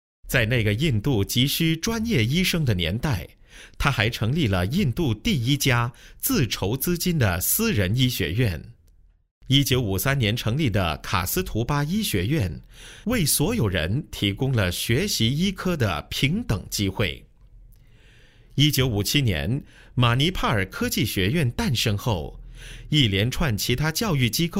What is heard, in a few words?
Mandarin